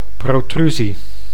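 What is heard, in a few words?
"protrusie", Dutch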